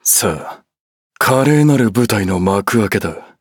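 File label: start, Japanese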